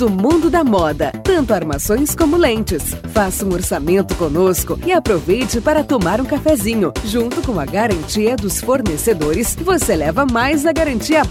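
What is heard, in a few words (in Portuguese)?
SPOT ÓTICA; FIX ED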